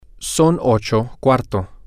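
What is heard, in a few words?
its a quarter past eight, Spanish, male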